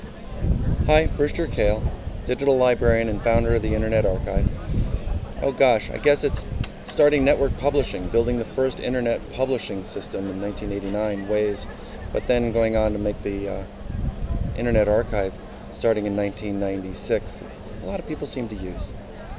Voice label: voice, en